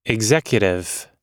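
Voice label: "executive", English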